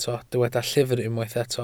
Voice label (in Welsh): "llyfr" Welsh